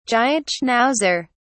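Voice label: "giant schnauzer", British English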